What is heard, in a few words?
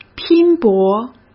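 "拼搏", Mandarin Chinese; hsk; chinese-flashcards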